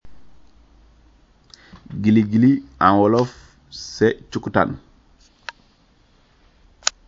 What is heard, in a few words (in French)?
guili-guili wolof